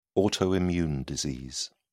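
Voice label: "autoimmune disease", English, British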